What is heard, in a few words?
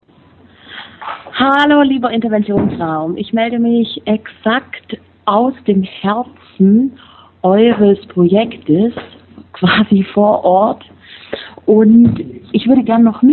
Voicemail